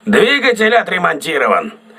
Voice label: voice message engine repaired, crew ships russian